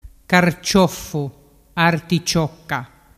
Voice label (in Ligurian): "carcioffu vedi articiocca", dialettu